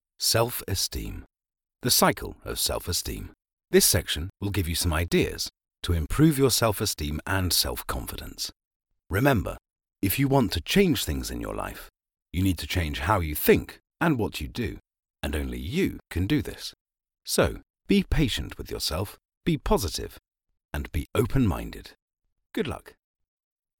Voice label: english british e-learning

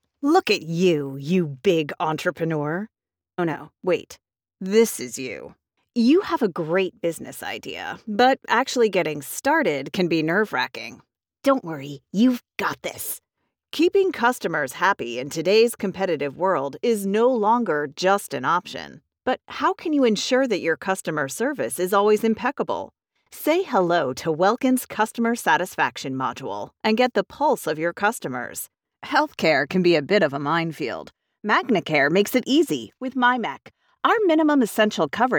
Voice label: english american explainer videos

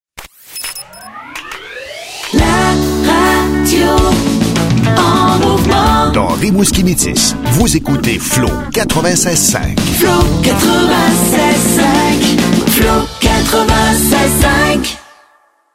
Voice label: french canadian radio imaging

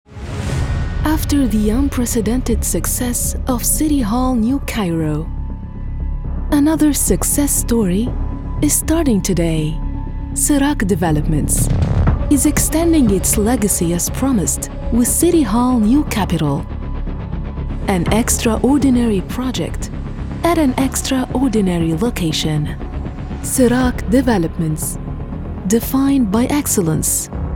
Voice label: English promos

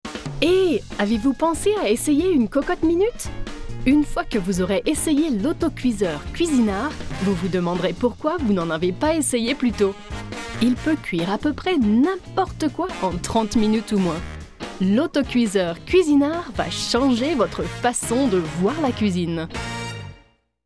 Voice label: french promos

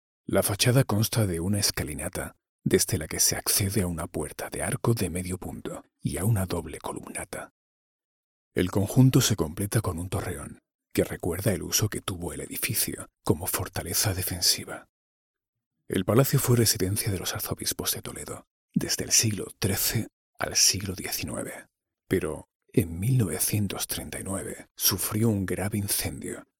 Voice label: spanish audio guides

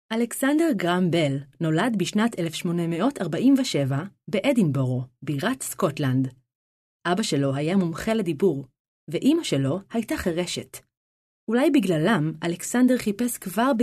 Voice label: Hebrew audiobooks

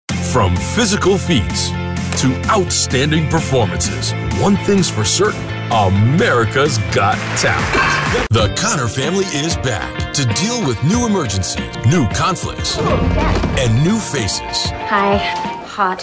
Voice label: english american tv shows

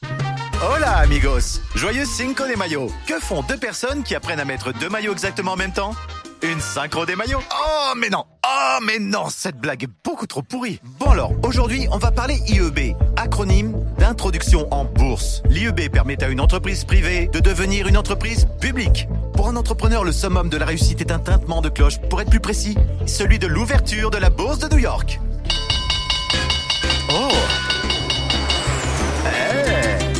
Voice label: french tv shows

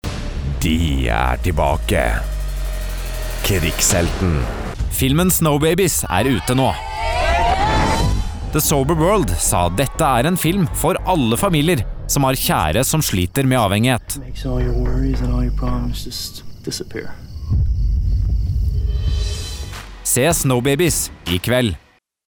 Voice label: norwegian movie trailers

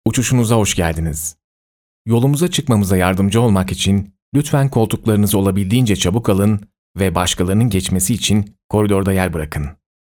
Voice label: turkish audiobooks

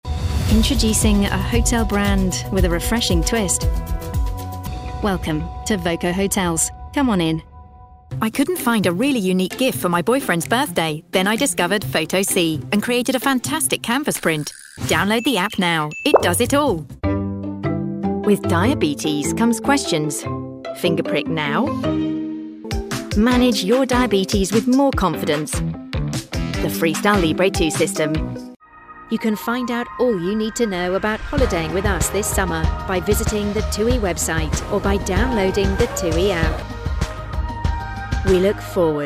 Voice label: english british commercial

